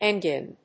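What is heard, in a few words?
"ENGIN", English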